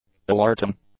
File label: "alerting", English; nouns